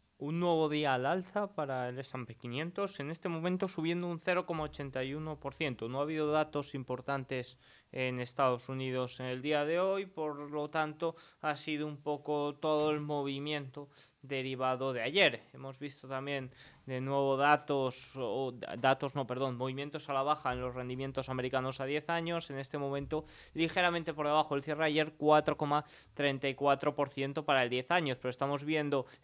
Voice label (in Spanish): radio; el sp500 recupera practicamente todo lo perdido en la semana